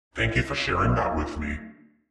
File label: Robot - Thank You